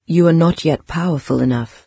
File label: low power, en-UK, NARRATOR; Fate